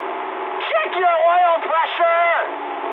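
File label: damaged oil mood high, speech English; War Thunder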